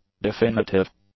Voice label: "definitive", english; adjectives

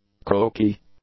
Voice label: "croaky", English; adjectives

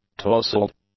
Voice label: "tousled", English; adjectives

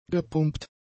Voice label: "gepumpt", German; adjectives

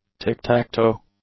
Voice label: "tick-tack-toe", English; nouns

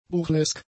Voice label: "burlesk", German; adjectives

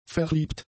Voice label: "verliebt", German; adjectives

